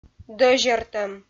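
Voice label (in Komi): "дӧзьӧртӧм", Komi, female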